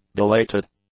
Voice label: "belated", English; adjectives

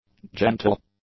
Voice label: "gentle", english; adjectives

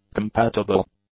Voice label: "compatible", English; adjectives

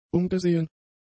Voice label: "umgesehen", German; adjectives

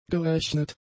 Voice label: "gerechnet", German; adjectives

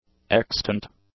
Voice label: "extant", english; adjectives

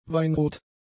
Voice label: "weinrot", German; adjectives

